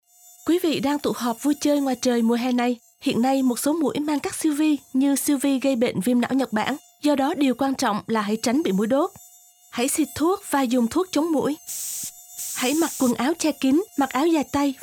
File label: vietnamese; nsw health; mosquito-borne